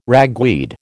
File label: "ragweed", American